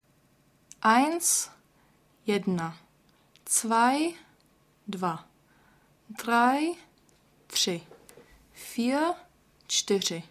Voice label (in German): Deutsch Tschechisch